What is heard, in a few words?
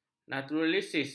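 "naturalis", Malay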